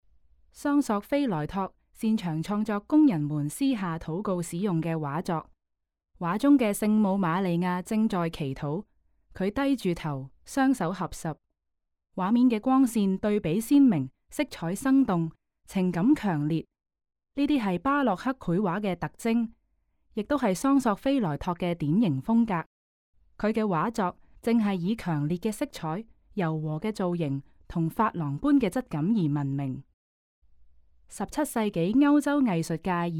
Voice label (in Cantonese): Canto; 祈禱的聖母; 桑索菲萊托（1609–1685年）; 1640–1650年; 布面油畫，73.0 x 57.7厘米; 英國國家美術館; 從波提切利到梵高：英國國家美術館珍藏展